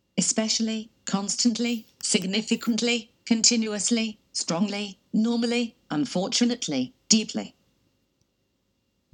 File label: l end words uk